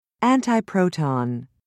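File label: "antiproton", British English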